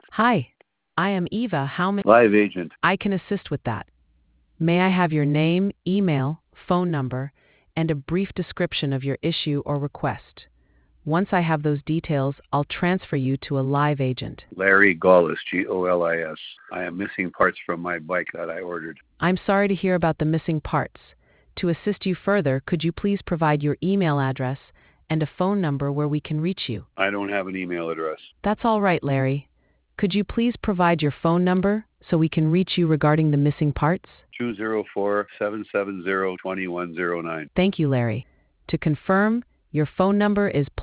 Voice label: conversation